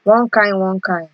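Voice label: "one kind one kind", Nigerian Pidgin, female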